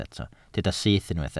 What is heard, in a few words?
"syth", Welsh